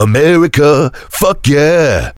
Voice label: heavy positive vocalization; tf; duke